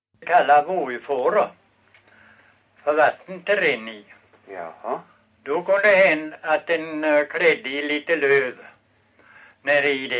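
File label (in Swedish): Långlöt Småland, svenska sagor dialekter; Folkminnen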